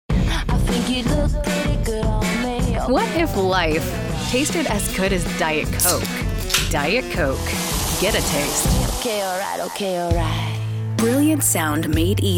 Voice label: Commercial